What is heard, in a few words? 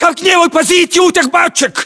order cover barrels, ru; localized english